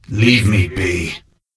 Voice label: Death Knight Male Low Farewell